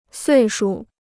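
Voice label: "岁数", Chinese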